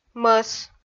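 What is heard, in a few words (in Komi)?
"мӧс", Komi, female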